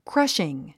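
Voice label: "crushing", 英単語 発音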